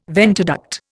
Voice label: "ventiduct", Indian

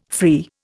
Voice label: "free", Indian

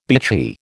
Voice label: "bitchy", American

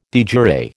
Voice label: "de jure", American

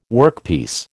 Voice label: "workpiece", American

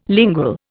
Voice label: "lingual", Indian

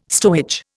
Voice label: "stowage", Indian

